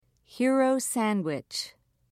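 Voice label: "hero sandwich", British English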